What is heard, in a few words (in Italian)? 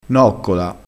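"noccola", dialettale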